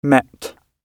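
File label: "met", British English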